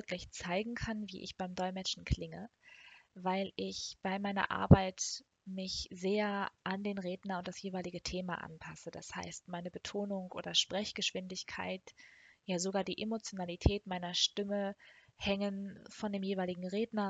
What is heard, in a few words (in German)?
deutsch